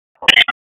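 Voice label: Raleigh Police Special Operations Tactical, p25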